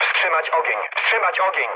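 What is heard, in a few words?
player friendly fire, mood low, Polish speech; War Thunder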